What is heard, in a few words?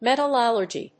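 "metal allergy", English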